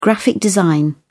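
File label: "graphic design", British English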